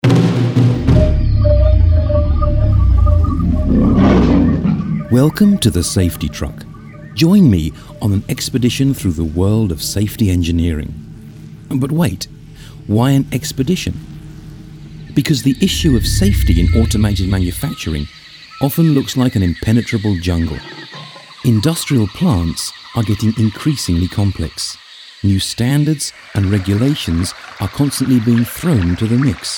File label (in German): Hoerbeispiel Sound Voice